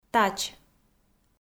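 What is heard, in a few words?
"тач", Komi, female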